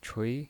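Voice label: "chui", Mandarin Chinese pinyin voice, second tone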